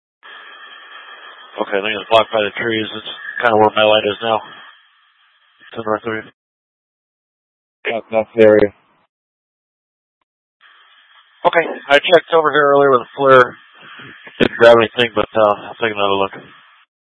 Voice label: Mutual Aid-Intersystem Interop B, scanner

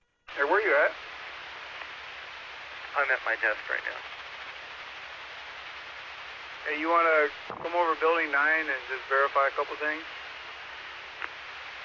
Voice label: Medevac, scanner; NativeAirAmb

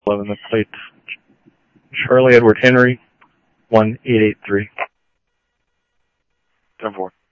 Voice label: Police, scanner audio; SCTSDLE PD - PD2 ScottsdalePolice; ThompsonPeakHigh

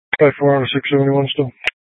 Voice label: Sheriff, scanner P25; Shrf Lakes

